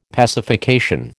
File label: "pacification", American